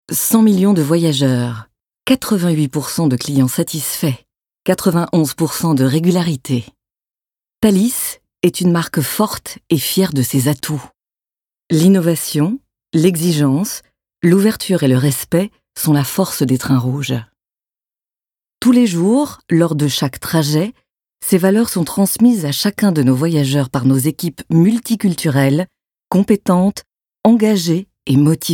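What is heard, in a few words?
French, female, corporate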